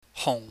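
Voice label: "hung", Cantonese, low falling tone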